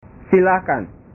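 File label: "silakan", Indonesian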